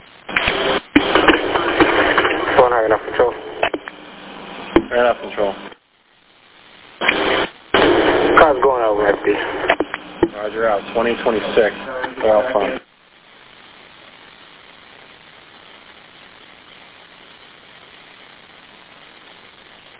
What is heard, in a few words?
Police Radio